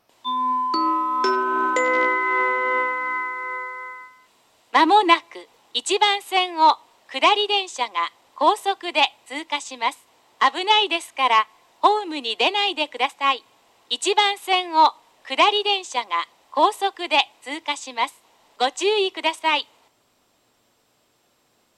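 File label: platform 1 down-bound approaching pass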